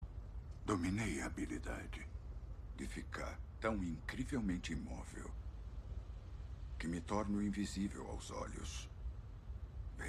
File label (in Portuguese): drax invisivel dublado; vingadores guerra infinita